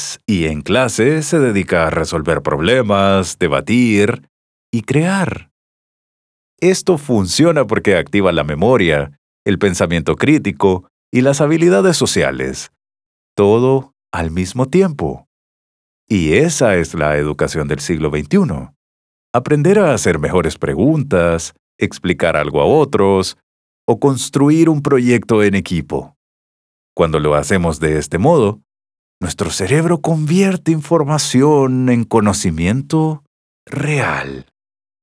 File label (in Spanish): E-learning Aprendizaje activo